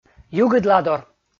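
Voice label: "югыдладор", Komi, male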